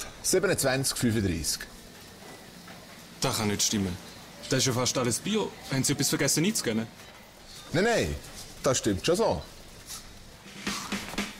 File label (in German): als kassierer schweiz; springerkoenig chrigu; lidl schweiz; Muttersprache Deutsch/Schweizerdeutsch